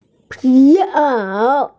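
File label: "leather jacket", Mandarin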